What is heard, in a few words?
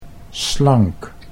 "slank", Dutch